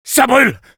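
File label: Engineer auto on fire, French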